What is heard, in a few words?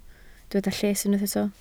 "lles", Welsh